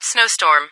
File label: Snow Storm, ATIS